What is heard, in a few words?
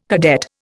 "cadet", Indian